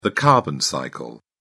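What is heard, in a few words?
"carbon cycle", English, British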